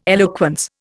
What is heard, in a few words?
"eloquence", Indian